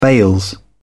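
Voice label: "bails", British English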